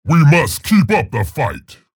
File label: vo chatter negative; respawn; scalebearer